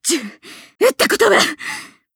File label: pained angry; moeka